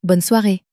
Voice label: "bonne soiree", french; flashcards